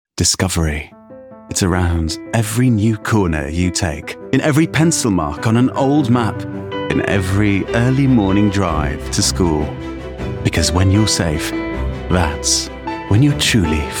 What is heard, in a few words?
UK corporate